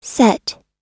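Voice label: "set", American English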